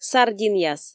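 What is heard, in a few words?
"сардинъяс", Komi, female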